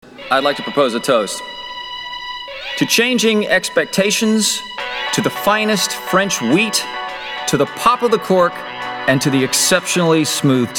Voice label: Commercial